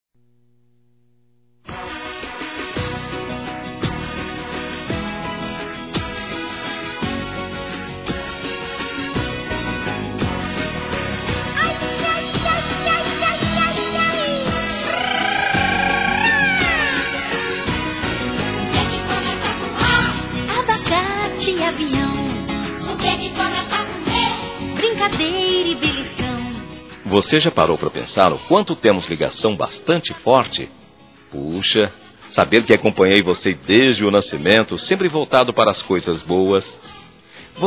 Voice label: Aniversário Neto Masculino; Telemensagens 2017; Aniversários; Aniversário Infantil

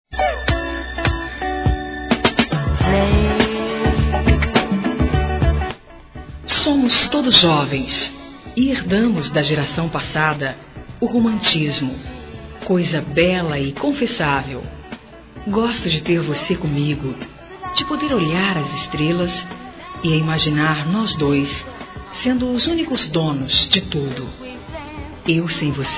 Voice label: Amor nâo tem idade Feminino; Telemensagens

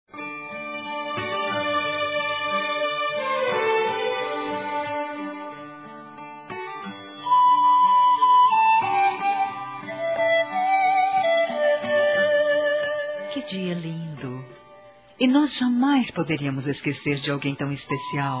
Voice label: ANIVERSÁRIO NETA F, Telemensagens, Fem; Aniversários; Aniv Familiar

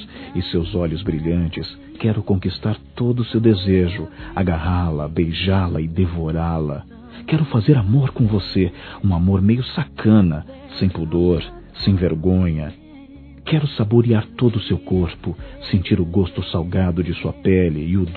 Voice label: PROPOSTA INDECENTE (M), Telemensagens Sensuais masc

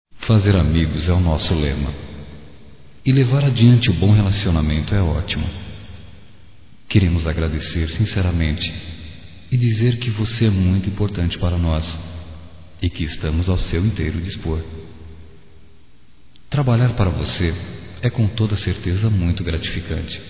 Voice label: Obrigado Pela Preferencia Masculino, Só Voz; Telemensagens; Telemensagens 2017

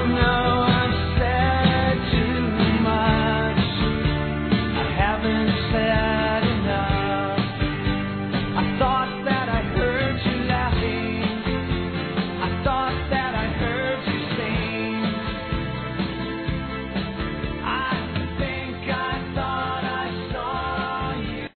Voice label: FORMATURA PARA AMIGO DUAS VOZES; Telemensagens; Formaturas; fem; Telemensagens 2017

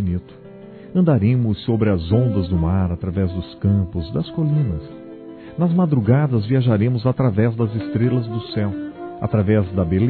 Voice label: Anjo da Amizade Masculino, Telemensagens Amizade

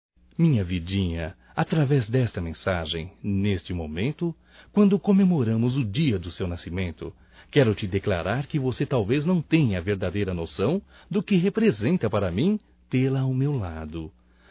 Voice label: ANIV Romântico (M); Telemensagens 2017; Aniversarios

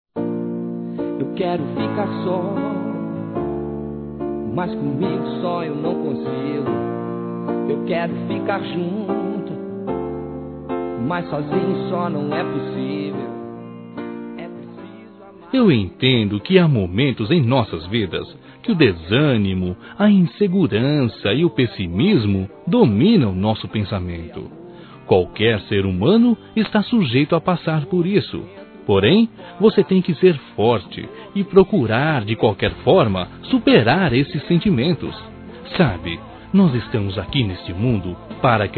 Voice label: Seja um Vencedor Masculino, Telemensagens; Otimismo

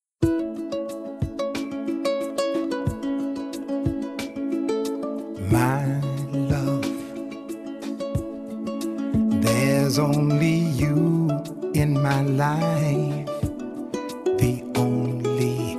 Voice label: Amizade Neutra Feminino

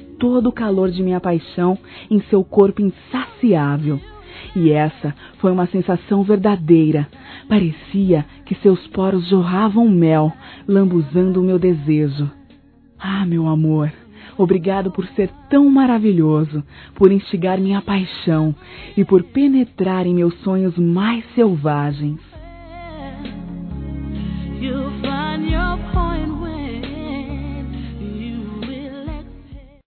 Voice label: SONHEI COM VOCE, fem; Telemensagens; Sensuais

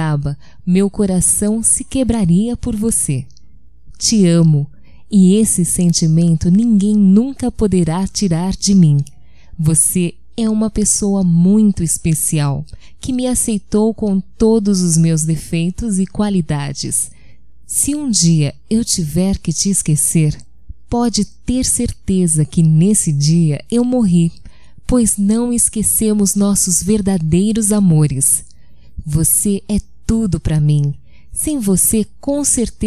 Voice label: Não Vá Embora Feminino, Telemensagens, Só Voz; Telemensagens 2017

